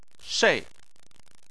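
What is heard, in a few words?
"shei" tone 4, Chinese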